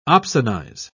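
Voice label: "opsonize", British English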